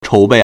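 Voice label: "chóubèi", Chinese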